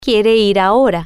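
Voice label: "Quiere Ir Ahora", Spanish, female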